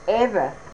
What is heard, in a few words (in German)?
"aber", fraenkisch